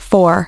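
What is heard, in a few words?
"four", English; iOS 4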